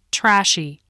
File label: "trashy", voice